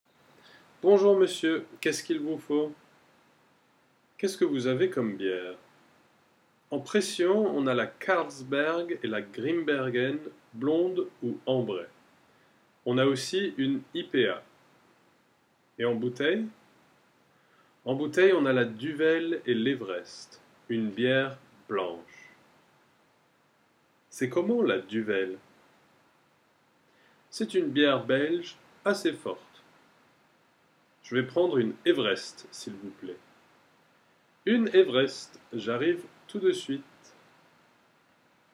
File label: dialogue brasserie, French; terrasse dune brasserie